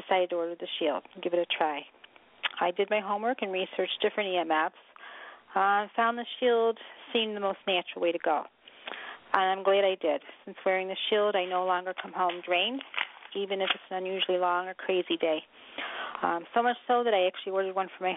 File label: Phone call